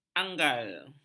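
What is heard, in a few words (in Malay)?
"anggal", Malay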